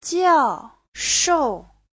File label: "jiao4 shou4", chinese